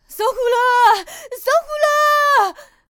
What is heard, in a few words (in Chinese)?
小孩喊着火啦